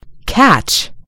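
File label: "catch", American English; gcompris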